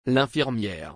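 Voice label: "L'infirmière", French; پرستار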